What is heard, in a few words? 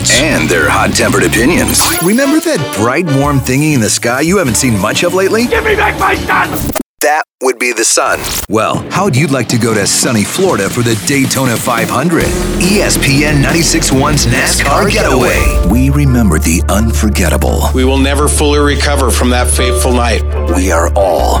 radio men news sports talk; radio imaging